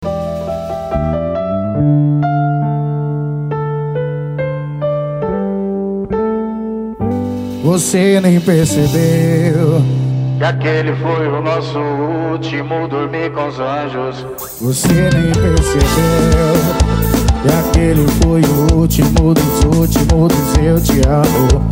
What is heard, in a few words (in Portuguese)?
locutor a voz magnifica